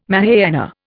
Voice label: "Mahayana", Indian

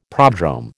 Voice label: "prodrome", American

